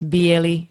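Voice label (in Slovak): "biely", Slovak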